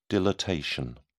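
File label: "dilatation", British English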